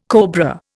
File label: "cobra", Indian